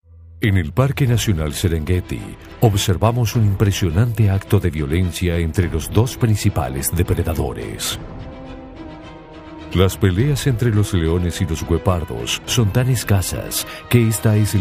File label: Spanish